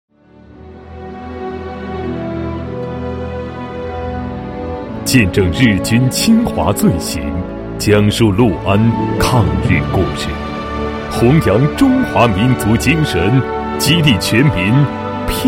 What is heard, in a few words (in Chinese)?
男 片花 纪录片片花, 国语男声配音; 抗日风云